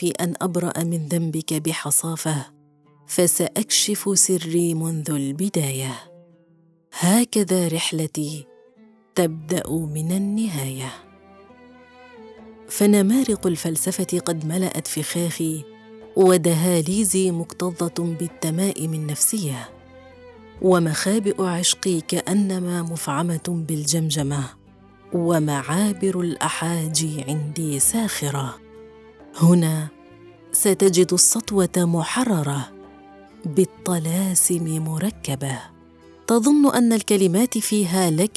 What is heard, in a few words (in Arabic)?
كتاب صوتي; لا تقرأ لي